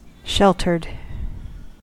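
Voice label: "sheltered", US English; pronunciations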